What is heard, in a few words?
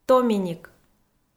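"томиник", Komi, female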